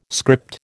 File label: "script", American